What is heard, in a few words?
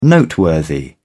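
"noteworthy", British English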